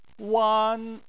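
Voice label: "wan", Chinese, first tone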